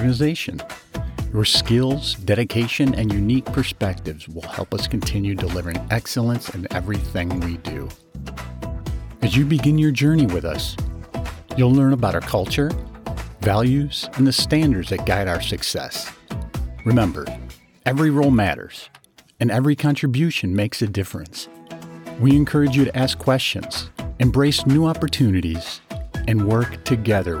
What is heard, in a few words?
Corporate welcome